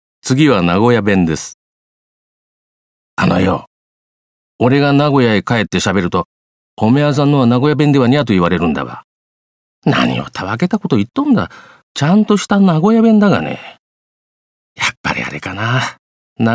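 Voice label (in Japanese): 方言, 愛知県名古屋市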